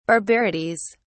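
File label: "barbarities", British English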